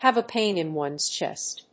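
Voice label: "have a pain in ones chest", English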